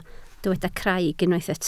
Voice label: "craig", Welsh